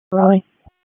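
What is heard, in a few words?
Police District Dispatch, p25; Raleigh; Northeast; wake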